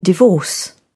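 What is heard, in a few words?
"divorce", British English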